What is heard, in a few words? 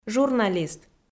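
"журналист", Komi, female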